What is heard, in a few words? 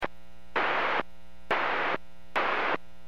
Aviation 122.7; KSTE